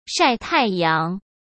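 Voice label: "晒太阳", Mandarin Chinese; hsk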